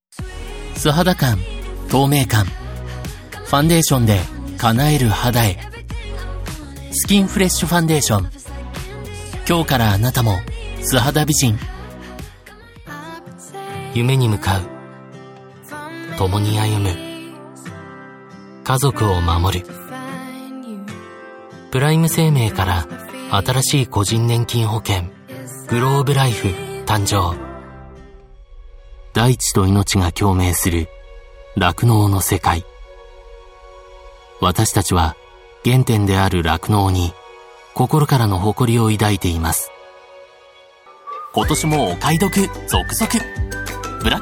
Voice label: japanese commercial